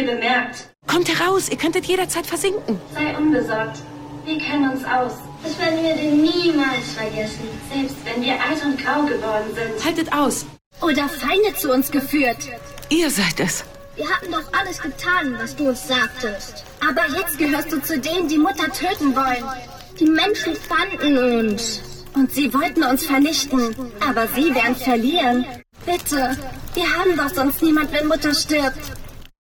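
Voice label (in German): Hörbuch Kind; Die Meisterin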